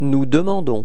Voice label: demander nous, frans

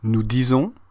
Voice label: dire nous, frans

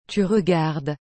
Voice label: "tu regardes", frans; regarder

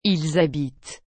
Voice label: "ils habitent", frans; habiter

